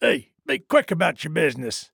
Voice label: trader male neutral greeting